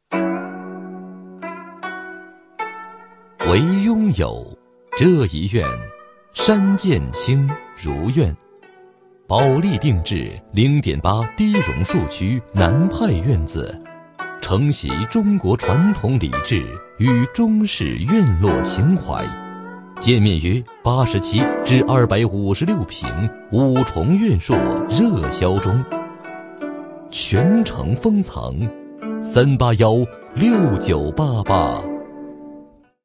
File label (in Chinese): 男 广告 古风典雅